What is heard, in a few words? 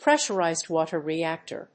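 "pressurized water reactor", English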